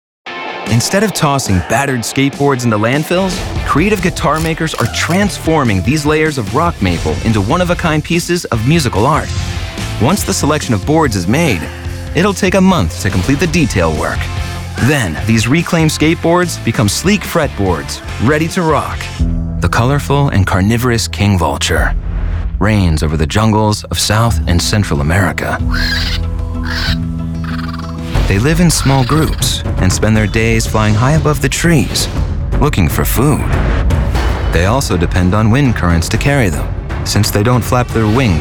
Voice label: TV Narration